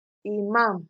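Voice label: "imam", Nigerian Pidgin, female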